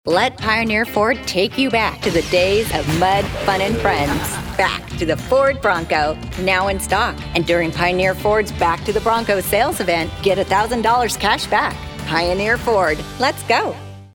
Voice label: reminiscent fun; Pioneer Ford